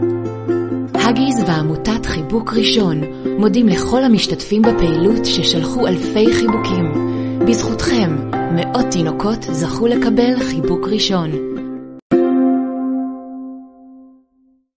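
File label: Hebrew, female, narrative; voice over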